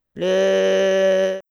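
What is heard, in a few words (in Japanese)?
"れ", Japanese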